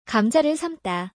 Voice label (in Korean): "감자를 삶다", Korean; Korean words about cooking